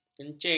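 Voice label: "kencing", Malay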